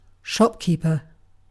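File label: "shopkeeper", British English; pronunciations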